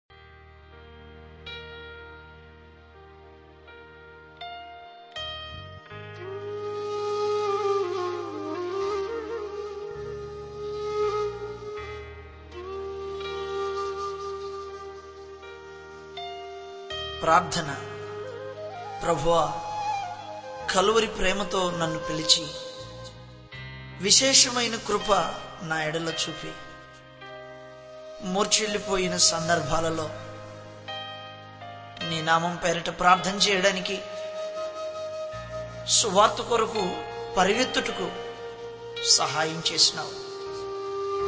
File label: Prayer, SONGS TELUGU